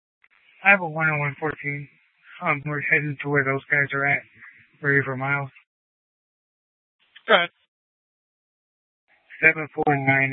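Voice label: police dispatch radio, scanner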